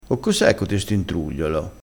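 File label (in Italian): "intrugliolo", vocabolario dialettale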